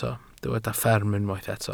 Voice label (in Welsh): "fferm" Welsh